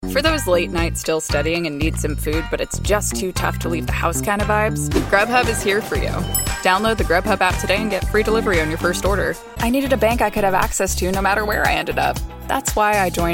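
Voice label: commercial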